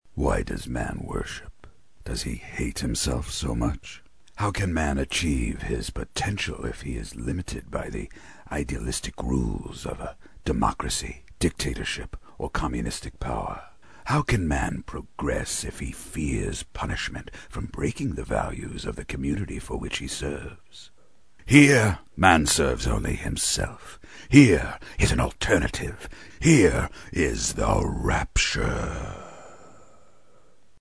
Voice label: Evil Being, Tempting & Disembodied; animation